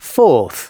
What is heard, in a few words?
announcements male